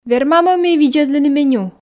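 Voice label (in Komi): "вермам-ӧ ми видзӧдлыны меню", Komi, female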